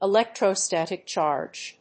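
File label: "Electrostatic charge", English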